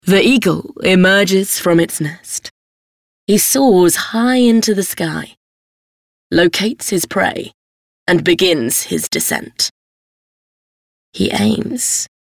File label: casting documentary